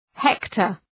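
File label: "hector", English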